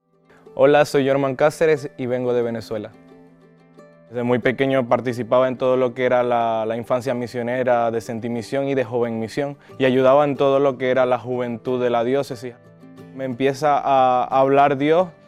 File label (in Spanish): TESTIMONIO; DIOS tiene SUENOS para cada uno; seminarista de Venezuela